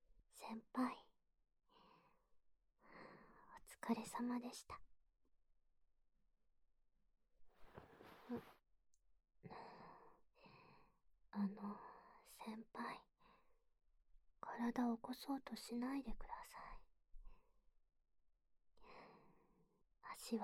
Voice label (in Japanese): ピロートーク